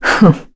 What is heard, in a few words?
get, kr, voices; heroes; Tanya; kings raid